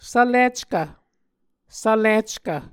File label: "svlickv", Muscogee